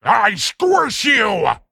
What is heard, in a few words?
Male Imp Attack